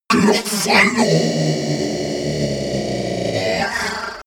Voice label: monster glitch talk; kreaturen